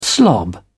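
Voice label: "slob", British English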